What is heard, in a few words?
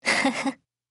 MOOD, JP